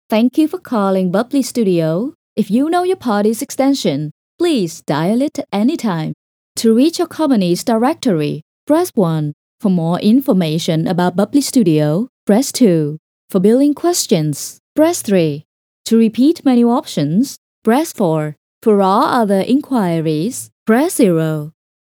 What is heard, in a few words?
Phone IVR